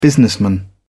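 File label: "businessman", British English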